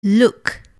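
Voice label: "look", English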